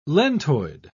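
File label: "lentoid", British English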